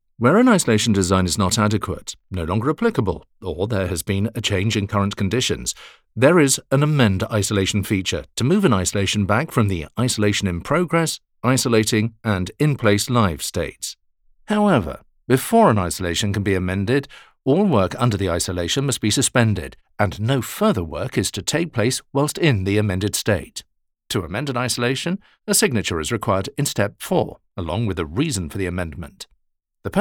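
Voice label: e-learning Conversational uptempo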